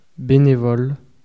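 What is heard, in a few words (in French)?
"bénévole" French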